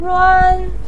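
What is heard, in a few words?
"ruan", Mandarin Chinese, first tone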